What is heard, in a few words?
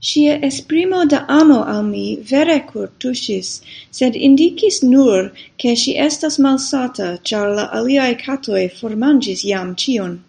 speech, Esperanto; Melburna Esperanto Asocio